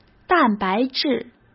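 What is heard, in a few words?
"蛋白质", Mandarin Chinese; chinese-flashcards; hsk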